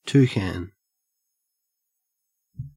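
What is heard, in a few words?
"toucan", Australian English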